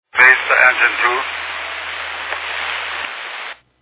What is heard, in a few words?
Fire Dispatch